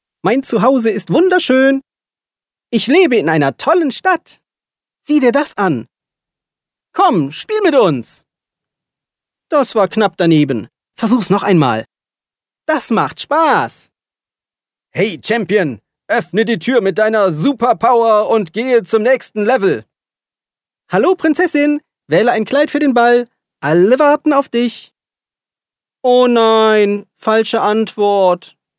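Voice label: German male children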